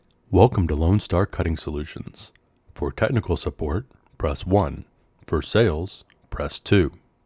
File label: outgoing message; lonestar